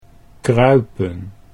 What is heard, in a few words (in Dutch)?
"kruipen", Dutch